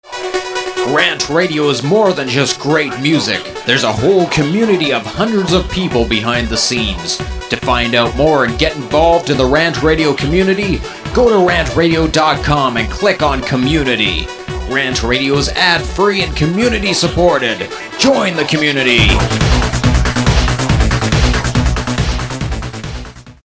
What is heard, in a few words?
Promo industrial psa; RantRadio; Station Promos-In House